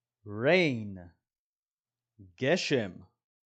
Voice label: "rain" – "גשם"

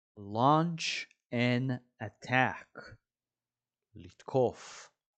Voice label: "launch an attack" – "לתקוף"

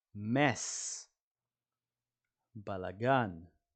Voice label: "mess" – "בלגן"

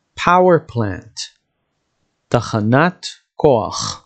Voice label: "power plant" – "תחנת כוח", dictionary

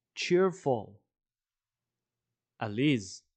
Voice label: cheerful – עליז

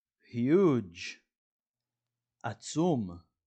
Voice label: "huge" – "עצום"

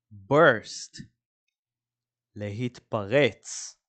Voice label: "burst" – "להתפרץ"

